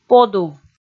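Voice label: "подув", Komi, female; kpv audio dict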